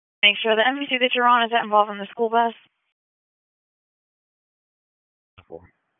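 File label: Raleigh Police Southwest District Dispatch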